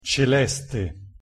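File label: "celeste", pronunciation Italian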